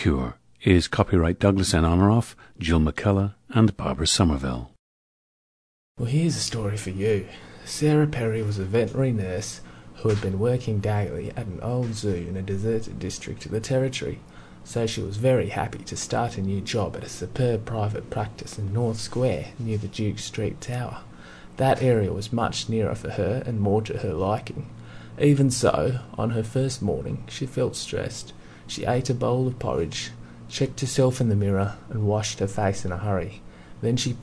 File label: australia